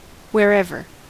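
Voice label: "wherever" English, US; pronunciations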